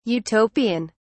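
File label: "Utopian", British English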